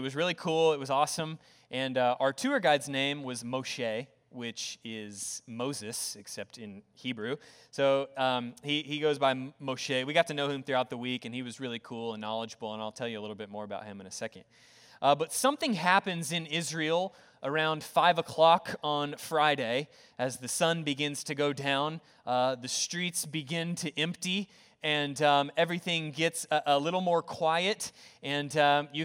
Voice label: english Sermon